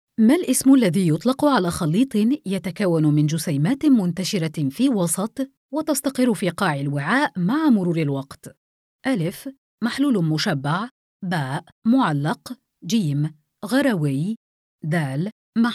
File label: E learning